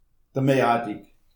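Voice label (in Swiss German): "meerretti", Alsatian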